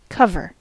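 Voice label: "cover", US English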